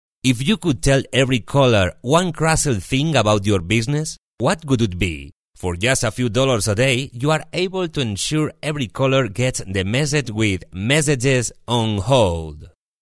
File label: Spanish English, narration; accent of spain